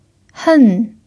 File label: "hen4", Mandarin pinyin